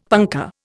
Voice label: "punkah", Indian; Dictionary

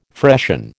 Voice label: "freshen", American

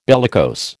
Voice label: "bellicose", American

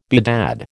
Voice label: "bedad", American

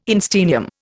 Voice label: "einsteinium", Indian

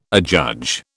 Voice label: "adjudge", British

